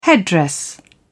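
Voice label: "headdress", British English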